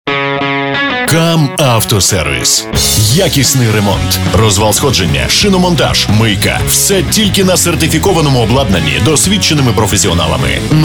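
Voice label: ukrainian voiceover locutor ucraniano, Ukrainian male voice over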